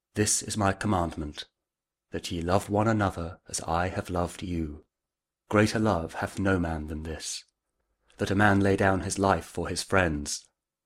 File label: prayer for love audio bible kjv; king james version; pray with jesus simple prayer